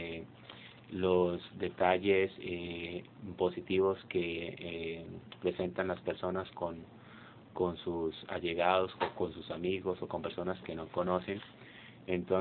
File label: Colombia Bucaramanga